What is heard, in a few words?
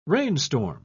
"rainstorm", pronounce